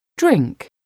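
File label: "drink", English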